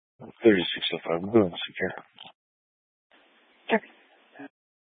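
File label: Police Dispatch, Scanner audio; Police 1 Dispatch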